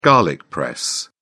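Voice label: "garlic press", British English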